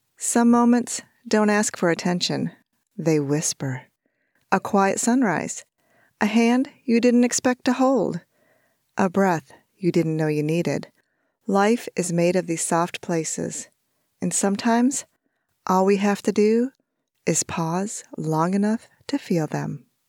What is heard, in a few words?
Emotional Commercial; Voice123